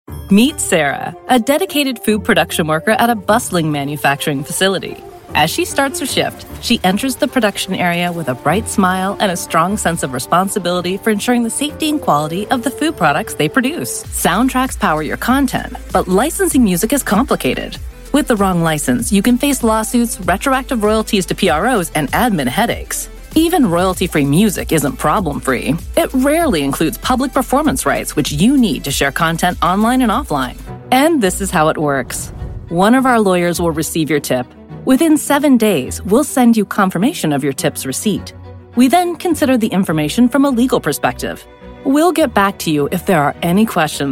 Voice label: Narration VO